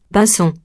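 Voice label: "pinson", French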